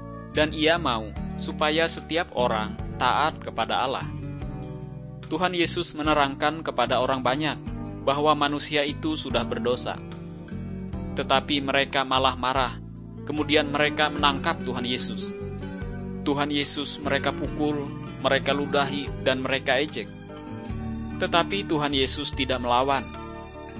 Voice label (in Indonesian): kabar baik good news bahasa indonesia